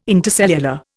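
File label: "intercellular", Indian English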